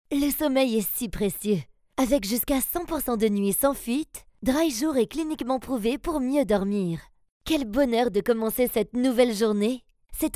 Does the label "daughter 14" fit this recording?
No